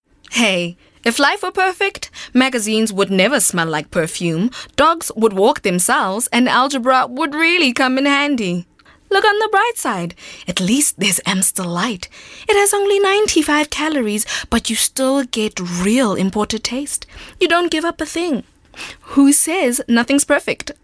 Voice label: English Bright